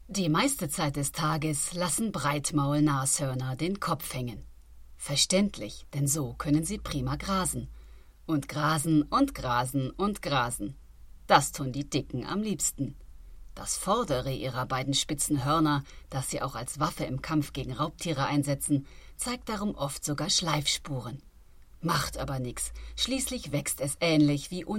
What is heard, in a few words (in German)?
Doku Kinder; Breitmaul